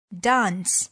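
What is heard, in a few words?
"dance", English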